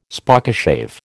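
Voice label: "Spokeshave", American English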